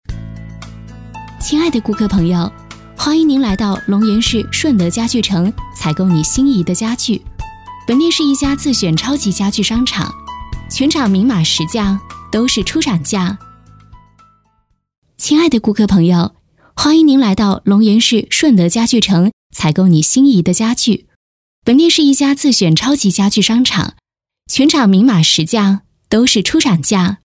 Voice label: 女 地铁广播; 欢迎您来到龙岩市; 女声广播提示

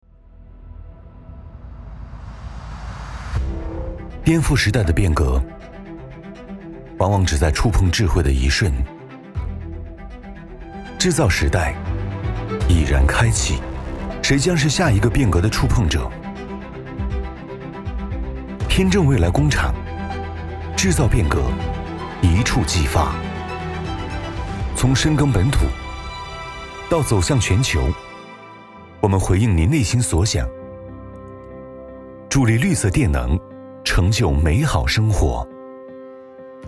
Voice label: 男 科技自然 未来工厂形象宣传; 走心企业形象片样音; 天正未来工厂形象宣传

